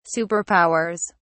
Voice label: "superpowers", en uk

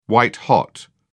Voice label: "white-hot", uk

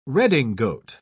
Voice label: redingote, uk

